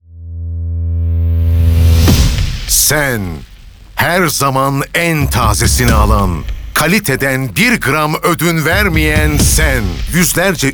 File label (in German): Radiospot Mann; Eurogida